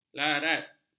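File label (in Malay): "larat", Malay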